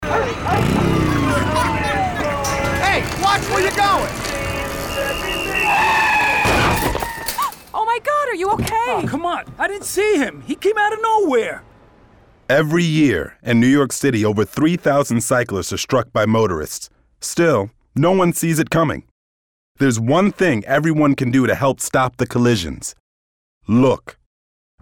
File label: Bicycle Safety, English